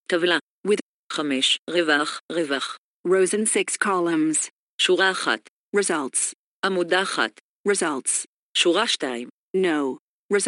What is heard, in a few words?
table screen reading